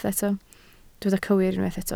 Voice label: "cywir", Welsh

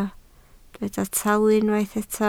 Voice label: "talu", Welsh

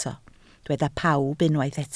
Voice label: "pawb", Welsh

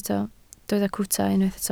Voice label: "cwta", Welsh

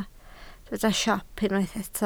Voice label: "siop", Welsh

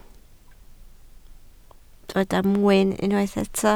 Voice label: "mwyn", Welsh

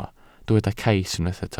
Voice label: "cais", welsh

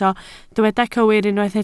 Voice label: "cywir", Welsh

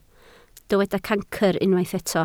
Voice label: "cancr", Welsh

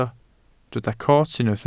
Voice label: "coot", Welsh

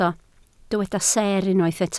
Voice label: "seer", Welsh

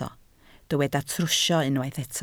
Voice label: "trwsio", Welsh

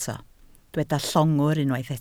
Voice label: "llongwr", Welsh

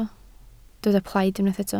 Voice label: "plaid", Welsh

